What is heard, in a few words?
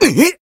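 Voice damaged, Japanese; jade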